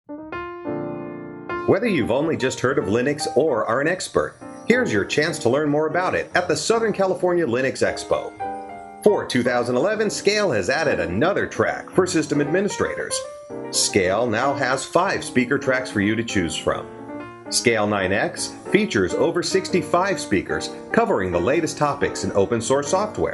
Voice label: audio promo; SCALE